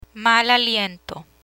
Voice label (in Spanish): "mal aliento", Spanish; medical vocabulary; learn spanish